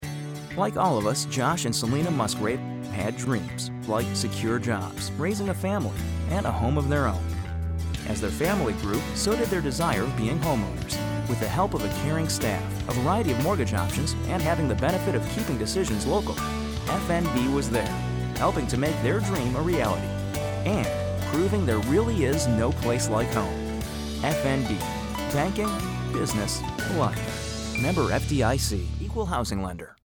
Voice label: broadcast; FNB Musgrave